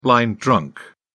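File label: "blind drunk", British English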